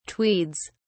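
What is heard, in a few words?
"tweeds", English, British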